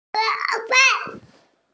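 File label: "he2 fan4", Mandarin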